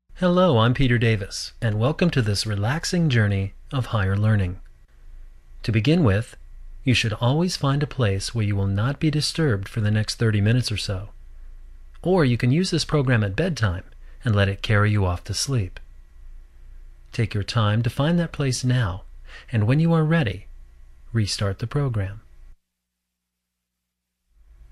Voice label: Waves, guided imagery